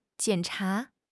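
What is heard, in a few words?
"jian3 cha2", Mandarin Chinese; HSK3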